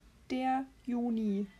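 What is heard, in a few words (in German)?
"Juni", German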